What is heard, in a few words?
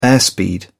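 "airspeed", British English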